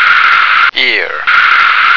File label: "ear", American